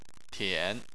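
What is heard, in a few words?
"tian", Chinese, third tone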